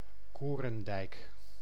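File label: "Korendijk", Dutch; كوريندايك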